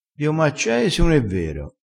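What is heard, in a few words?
"accea", Italian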